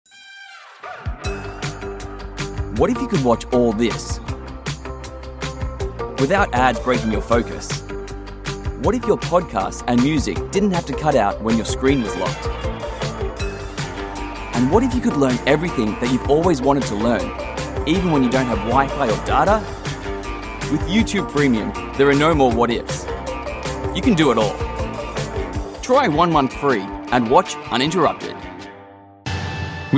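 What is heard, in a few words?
English Australian M Showreel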